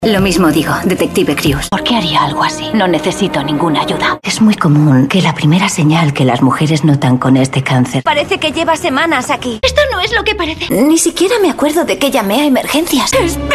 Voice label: spanish tv shows